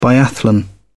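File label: "biathlon", British English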